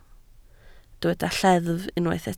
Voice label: "lleddf", Welsh

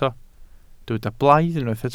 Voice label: "blaidd", Welsh

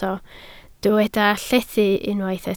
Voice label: "llethu", Welsh

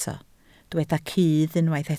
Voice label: "cudd", Welsh

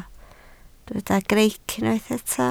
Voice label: "greic", Welsh

